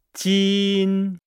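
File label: "jin", Chinese; Nihao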